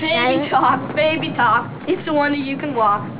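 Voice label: baby talk; bradybunch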